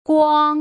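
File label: "guang", Putonghua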